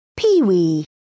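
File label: "peewee", English, British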